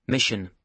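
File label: "mission", British English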